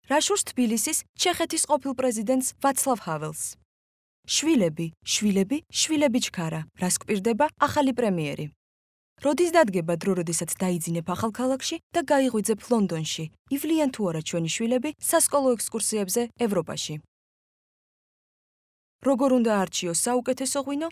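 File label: georgian female narration; voice demos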